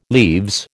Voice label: "leaves", American